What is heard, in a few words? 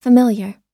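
"familiar", English; oxford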